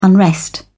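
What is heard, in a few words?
"unrest", English, British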